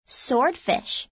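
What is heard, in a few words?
"sword fish", English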